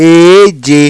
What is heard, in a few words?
"EEJE", Yoruba